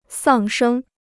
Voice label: "丧生", Chinese